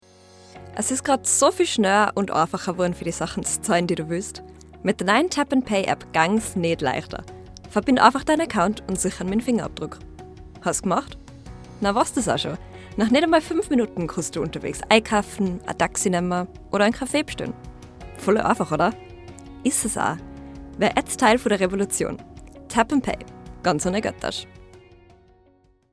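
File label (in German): österreichisch Werbung kontaktloses Bezahlen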